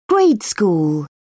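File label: "grade school", English, British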